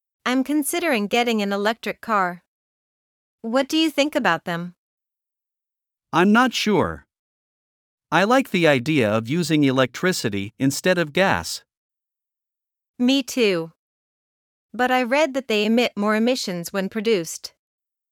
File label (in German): Dialog; Elektroautos; nachhaltigkeit-klimawandel